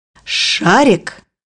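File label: "ball", Russian; bukvar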